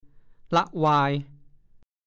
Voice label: "ละวาย", ภาษาโคราช

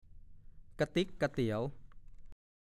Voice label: "กะติ๊กกะเตี๋ยว", ภาษาโคราช

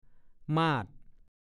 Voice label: "มาด", ภาษาโคราช; สินสอด มาดหมาย ก็พูด

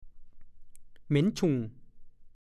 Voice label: "เหม็นฉุ่ง", ภาษาโคราช; กลิ่นเหม็นอย่างแรง

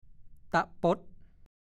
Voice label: ตะป๊ด, ภาษาโคราช; ปด โกหก

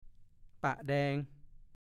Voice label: "ปะแดง", ภาษาโคราช; ผู้ที่สึกจากพระที่คงแก่เรียน ทิดที่คงแก่เรียน

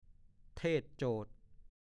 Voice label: "เทศน์โจทย์", ภาษาโคราช; เทศน์ปุจฉาวิสัชนา

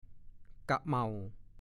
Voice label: "กะเหม่า", ภาษาโคราช

